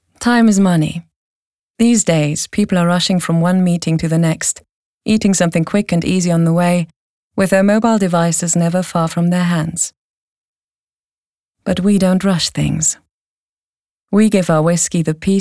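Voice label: British English, advert, Cool; Old MacKanzie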